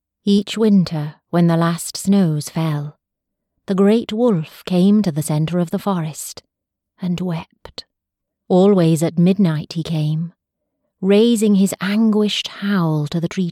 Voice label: audiobook